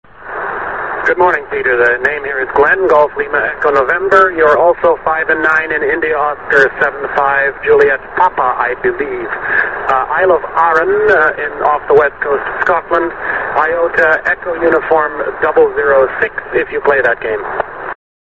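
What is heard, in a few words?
amateur radio contact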